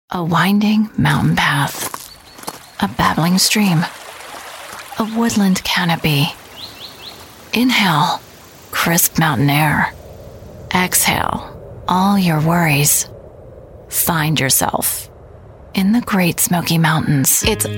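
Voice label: american commercial, American Female Voice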